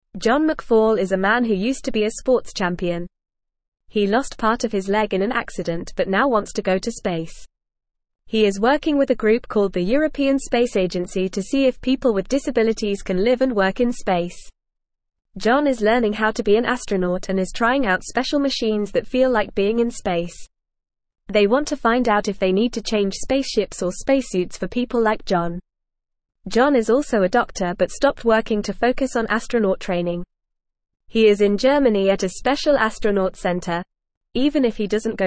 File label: English FAST Reading; John McFall From Sports Champion to Space Explorer